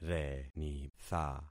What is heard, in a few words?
"renitha", concat syllables; Affixing experiment